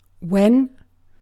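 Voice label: "when", British English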